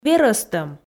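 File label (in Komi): "верӧстӧм", Komi, female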